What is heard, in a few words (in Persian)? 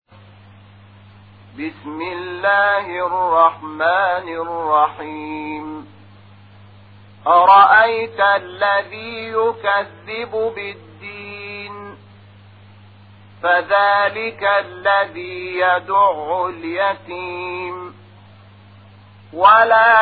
ترتیل قرآن کریم سوره ماعون; محمود شحات انور